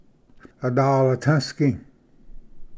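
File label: "adalatusgi", Cherokee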